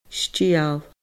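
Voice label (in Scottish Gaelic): "stiall", Scottish Gaelic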